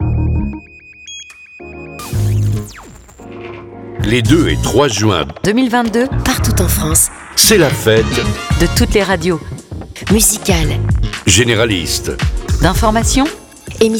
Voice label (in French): spot mix des voix; Fete de la radio 30sec